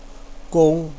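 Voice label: "gong", Mandarin Chinese, first tone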